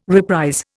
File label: "reprise", Indian